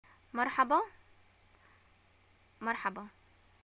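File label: "greeting morning", Yemeni Arabic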